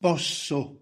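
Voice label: "posso", Italian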